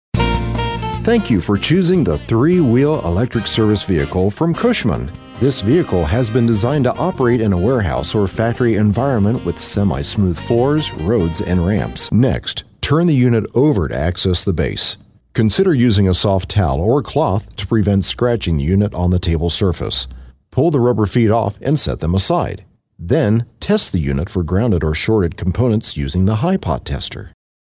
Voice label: CORPORATE TRAINING